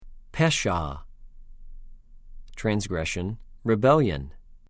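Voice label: "פֶּ֫שַׁע", Hebrew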